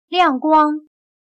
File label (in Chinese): "亮光", chino